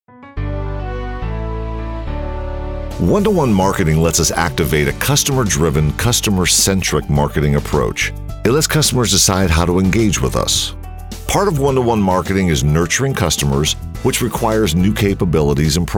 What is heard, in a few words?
narration